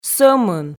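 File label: "сӧмын", Komi, female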